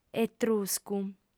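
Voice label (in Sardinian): "etruscu", female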